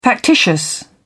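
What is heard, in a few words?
"factitious", British English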